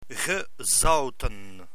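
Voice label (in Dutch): "gezouten" Dutch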